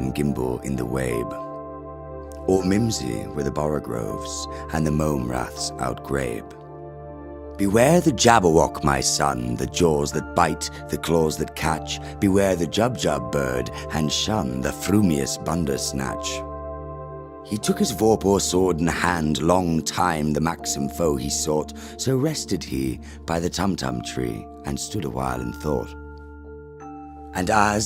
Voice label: reads Jabberwocky by Lewis Carroll